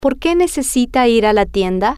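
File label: Porque Necesita Ir Tienda, Spanish, female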